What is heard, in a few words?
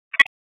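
Raleigh Police North District Tactical; wake; p25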